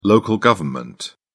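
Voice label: "local government", English, British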